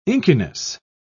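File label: "inkiness", British English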